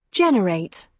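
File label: "generate", British English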